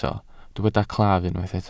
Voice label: "claf", welsh

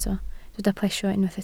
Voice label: "plesio", Welsh

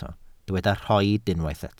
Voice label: "rhoid", welsh

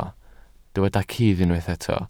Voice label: "cudd", Welsh

